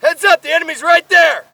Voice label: spot; bf3